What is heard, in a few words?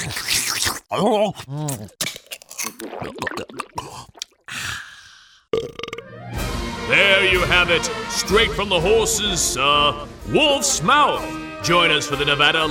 Pre Game Party Radio; U of N